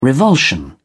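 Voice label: "revulsion", British English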